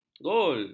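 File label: "gol", Malay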